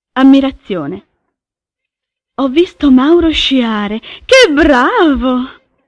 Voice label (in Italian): ammirazione